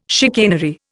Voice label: "chicanery", Indian